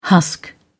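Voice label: "husk", British English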